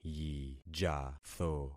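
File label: "yijatho", concatenated syllables; Affixing experiment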